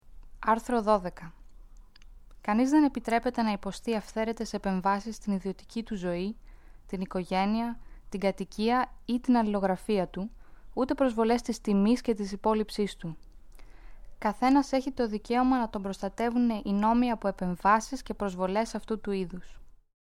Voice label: Greek